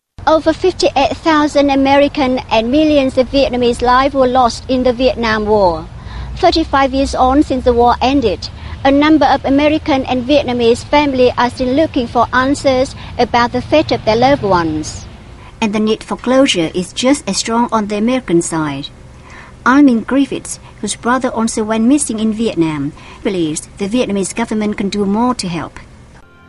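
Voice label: Vietnamese English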